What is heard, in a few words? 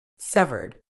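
"severed", British English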